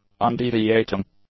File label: "undeviating", english; adjectives